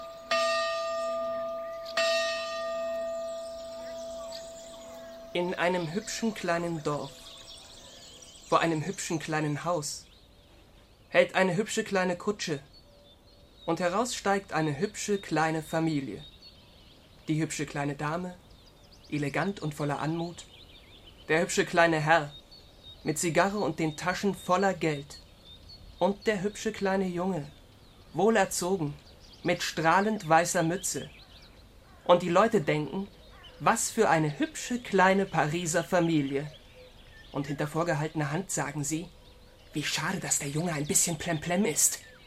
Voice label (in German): sprecher demo